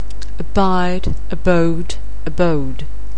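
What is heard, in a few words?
"abide", English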